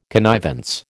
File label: "connivance", American